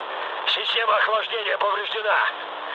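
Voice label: Russian damaged water mood low, speech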